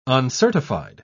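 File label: "uncertified", British English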